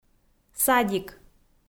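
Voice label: "садик", Komi, female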